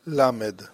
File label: "lamed", hebrew